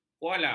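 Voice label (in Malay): "koala", Malay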